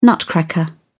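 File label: "nutcracker", English, British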